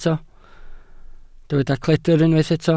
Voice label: "cledr", Welsh